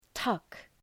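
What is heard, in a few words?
"tuck", English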